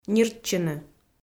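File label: "ниртчыны", Komi, female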